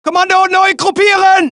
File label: German; mohaa